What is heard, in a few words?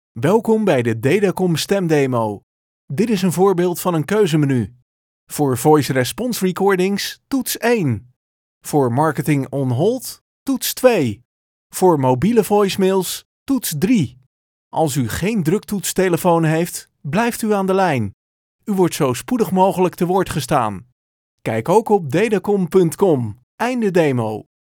Voice over Nederlandse man